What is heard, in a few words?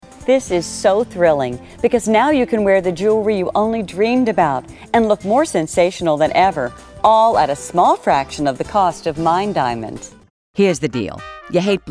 Commercial Voiceover